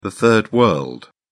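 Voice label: "Third World", British English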